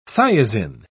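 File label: "thiazine", British English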